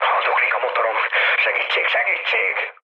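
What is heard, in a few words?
Hungarian damaged engine mood med, speech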